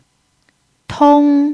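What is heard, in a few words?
"tong1", Mandarin pinyin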